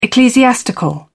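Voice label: "ecclesiastical", British English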